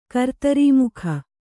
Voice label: "ಕರ್ತರೀಮುಖ", kannada

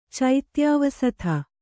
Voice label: "ಚೈತ್ಯಾವಸಥ", Kannada

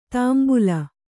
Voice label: "ತಾಂಬುಲ", Kannada

